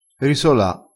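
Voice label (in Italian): "risola", dialettale, crespinese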